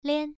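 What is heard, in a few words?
"lian", Mandarin Chinese, first tone, pronunciation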